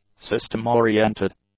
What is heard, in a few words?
"system-oriented", English; adjectives